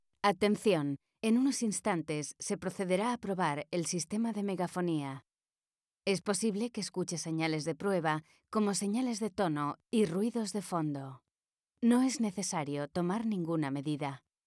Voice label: Spanish Female; Bedrock; Speech Samples